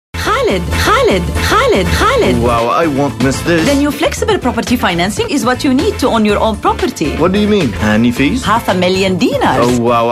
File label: radio bahrain ads